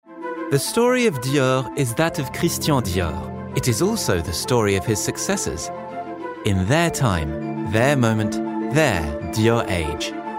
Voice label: English british podcasts